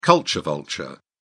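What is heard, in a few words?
"culture vulture", British English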